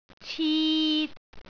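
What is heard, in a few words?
"cit3", Cantonese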